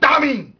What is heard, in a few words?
"Tammi" lang